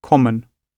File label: Pronunciation "common"